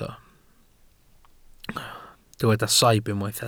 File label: "saib", Welsh